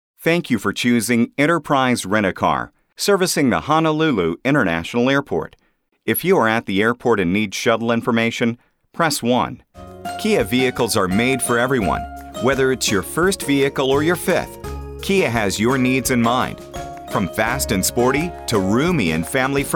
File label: Telephone Messaging